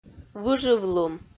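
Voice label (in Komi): "выжывлун", Komi-Zyrian, female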